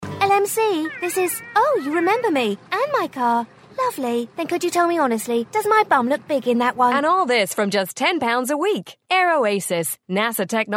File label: uk com youthful